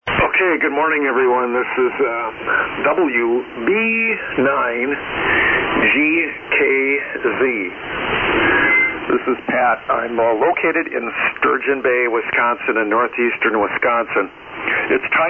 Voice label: Net Control Kenwood TS-830S MC-50 Mic & AL-80B Amp 80m Loop Antenna